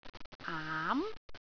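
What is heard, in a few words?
"aam2", Cantonese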